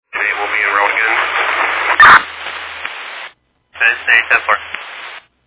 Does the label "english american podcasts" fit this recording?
No